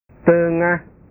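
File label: "tengah", Indonesian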